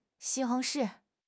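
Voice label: "xi1 hong2 shi4", Mandarin Chinese; HSK4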